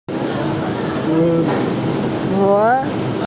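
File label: "lun2chuan2", Mandarin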